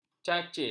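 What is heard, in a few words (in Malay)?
"cacil", Malay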